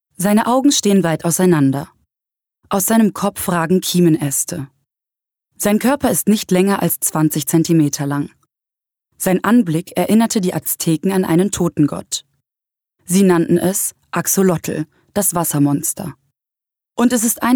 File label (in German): Dokumentarischer Text; BER